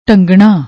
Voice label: "dhangnna", Punjabi; ਢੰਗਣਾ

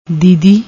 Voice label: "didi", Punjabi; ਦੀਦੀ

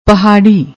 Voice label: "pahari", Punjabi

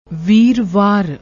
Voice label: "veerwar", Punjabi; ਵੀਰਵਾਰ

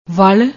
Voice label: "wall", Punjabi; ਵਲ਼; ول; noun, masculine; quibble, quirk

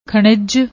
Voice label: "khanij", Punjabi; ਖਣਿਜ

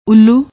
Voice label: "ulloo", Punjabi

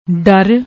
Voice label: "darr", Punjabi

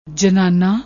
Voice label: "jannana", Punjabi

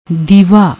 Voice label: "diva", Punjabi; ਦੀਵਾ

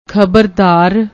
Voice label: "khabardaar", Punjabi; ਖਬਰਦਾਰ

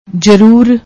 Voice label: "jaroor", Punjabi

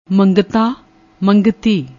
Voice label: "mangtaa", Punjabi

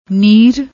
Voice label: "neer", Punjabi

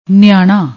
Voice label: "niaana", Punjabi

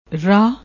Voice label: "rah", Punjabi

